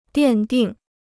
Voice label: "奠定", Chinese

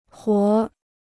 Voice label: "活儿", Chinese

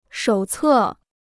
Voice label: "手册", Chinese

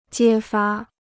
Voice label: "揭发", Chinese

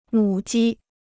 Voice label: "母鸡", Chinese

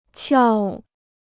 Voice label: "撬", Chinese

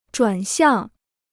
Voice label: "转向", Chinese

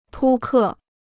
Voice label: "扑克", Chinese

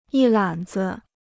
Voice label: "一揽子", Chinese

